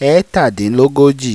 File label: "Etadinlogoji", Yoruba